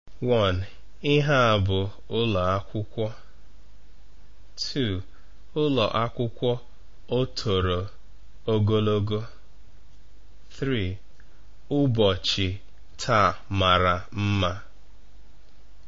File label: Playing outside school Igbo, Children Playing